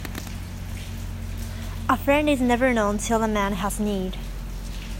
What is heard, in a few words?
Proverb in English